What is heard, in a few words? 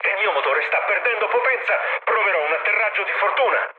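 Italian damaged engine mood high, speech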